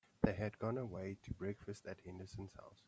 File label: african, accent; commonaccent